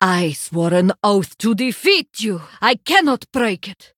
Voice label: Draenei Emote Threaten; Yrel